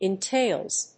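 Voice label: "entails", English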